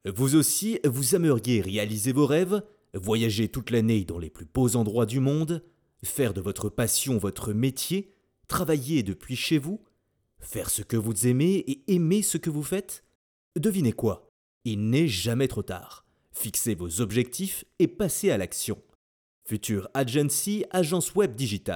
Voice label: 宣传片

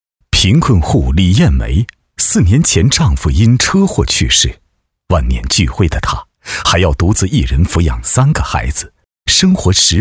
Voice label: 男-人物专题

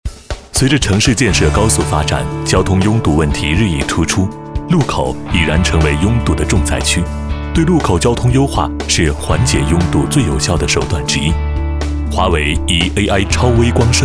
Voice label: 男 宣传片 年轻质感; 华为

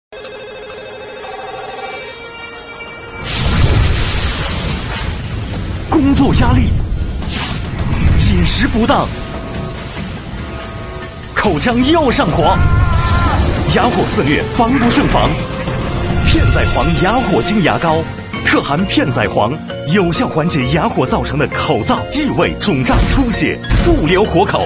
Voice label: 男 广告, 国语男声配音

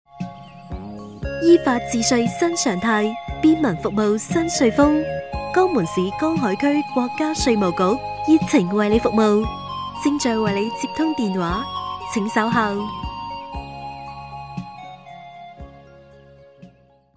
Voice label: 粤女 国家税务局 粤语彩铃

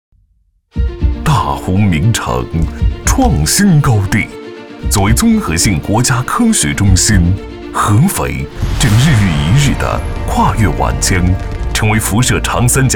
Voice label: 男, 大气, 企宣; 安徽科学城

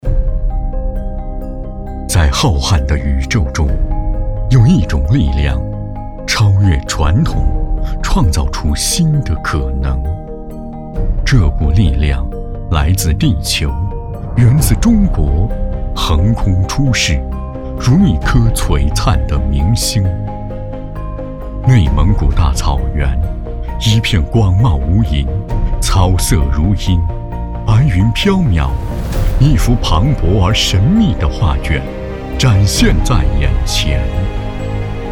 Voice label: 男, 酒业宣传; 内蒙古绿草地酒

